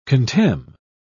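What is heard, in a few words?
"contemn", British English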